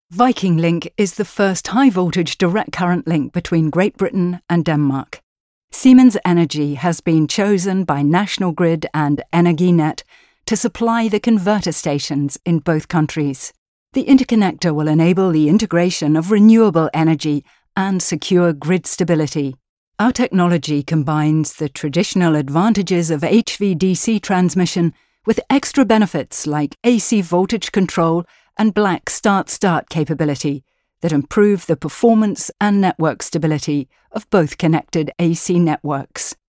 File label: technical image film; Muttersprache Englisch